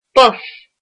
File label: bus, irish